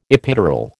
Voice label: "epidural", American

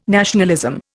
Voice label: "nationalism", Indian

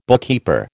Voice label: "bookkeeper", American

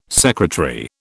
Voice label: "secretary", British

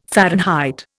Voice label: "Fahrenheit", Indian

